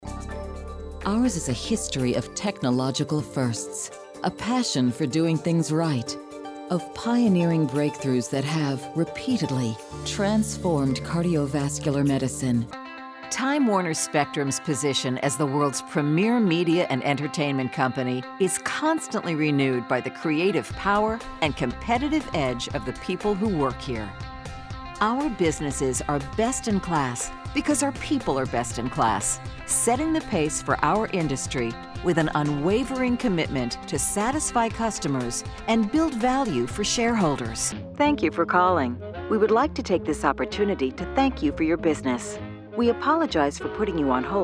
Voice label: industrial narration